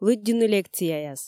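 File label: "лыддьыны лекцияяс", Komi, female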